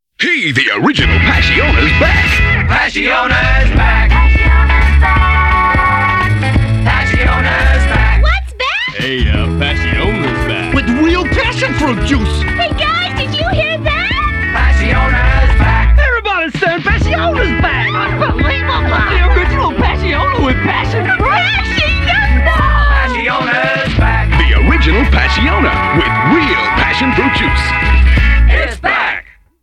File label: Voice Over; Passiona